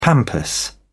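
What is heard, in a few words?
"pampas", British English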